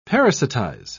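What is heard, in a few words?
"parasitize", British English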